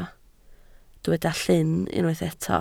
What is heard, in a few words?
"llyn", Welsh